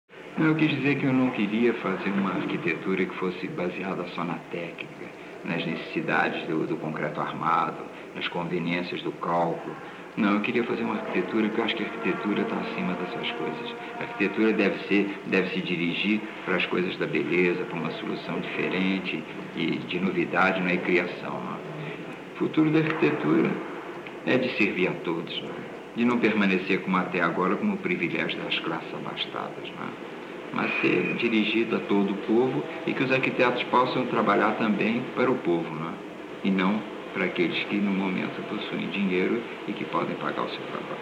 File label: architects Spoken Word; niemeyer mono; city of the future